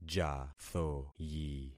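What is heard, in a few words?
"jathoyi", concatenated syllables; Affixing experiment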